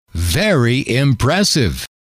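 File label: very Impressive, male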